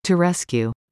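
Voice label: "to rescue", English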